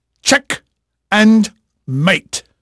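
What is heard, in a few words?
English male, voice; Pirates Of The Caribbean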